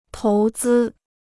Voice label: "投资", Chinese

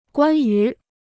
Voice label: "关于", Chinese

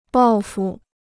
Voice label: "报复", Chinese

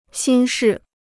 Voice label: "心事", Chinese

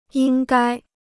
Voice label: "应该", Chinese

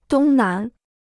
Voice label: "东南", Chinese

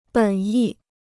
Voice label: "本意", Chinese

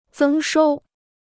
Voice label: "增收", Chinese

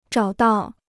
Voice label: "找到", Chinese

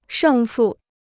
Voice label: "胜负", Chinese

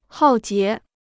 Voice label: "浩劫", Chinese

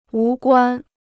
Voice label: "无关", Chinese

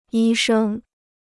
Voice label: "医生", Chinese

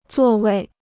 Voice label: "座位", Chinese

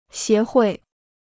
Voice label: "协会", Chinese

